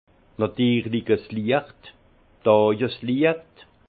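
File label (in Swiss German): "natirlich", Alsace Haut Rhin; vin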